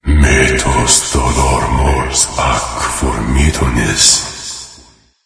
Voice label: boo latin deep